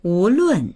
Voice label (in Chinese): "wu2lun4", voices